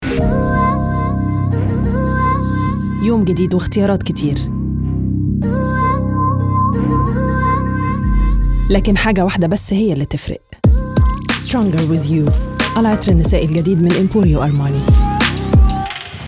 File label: EG Commercial; Emporio Armani